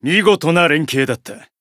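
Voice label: win first, Japanese; zodiac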